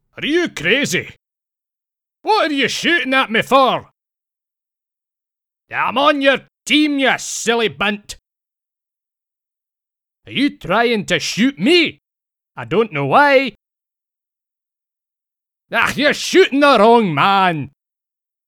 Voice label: Character Voice; UT4